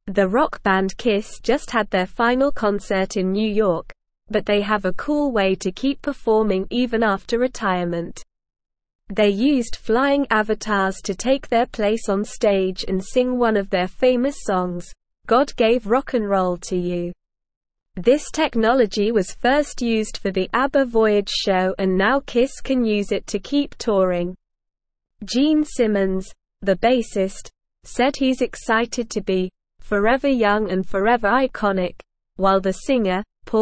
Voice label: Upper Intermediate SLOW Reading, English; English Newsroom